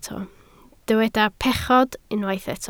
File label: "pechod", Welsh